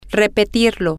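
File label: to Repeat It masculine, Spanish, female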